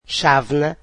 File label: "cup", Portuguese